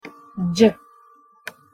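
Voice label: "dzs", Hungarian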